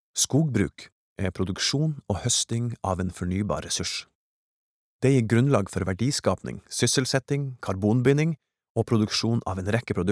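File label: Norwegian informative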